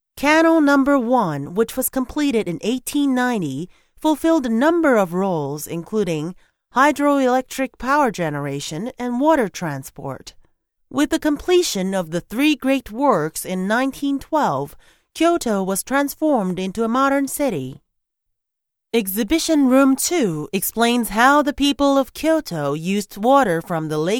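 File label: 3rd exhibition room english; Voice guidance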